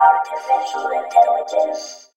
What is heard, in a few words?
Artificial Inteligence, Robotic Vox; 90s Sample CDs; Club Techno